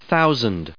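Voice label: "thousand", English, us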